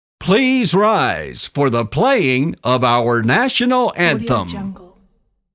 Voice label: announcer rise for national anthem; applehillstudios